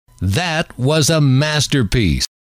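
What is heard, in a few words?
that Was A Masterpiece, male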